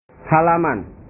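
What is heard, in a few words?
"halaman", Indonesian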